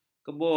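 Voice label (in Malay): "kebur", Malay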